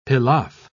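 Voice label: "pilaff", British English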